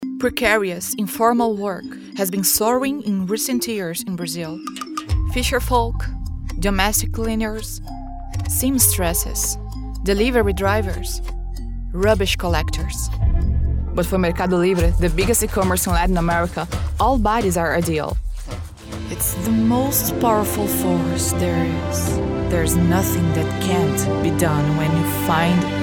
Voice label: English Variety of Styles female Brazilian Portuguese voiceover; DemoReel